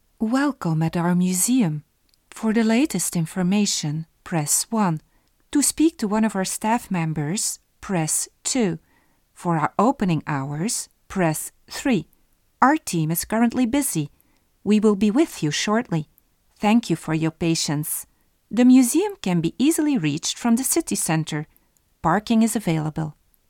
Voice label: Voice museum, voice-over